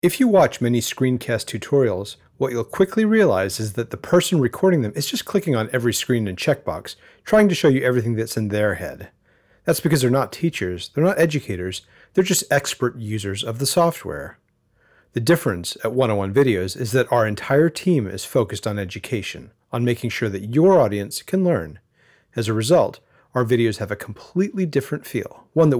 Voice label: Voiceover